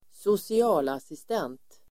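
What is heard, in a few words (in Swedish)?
"socialassistent", Swedish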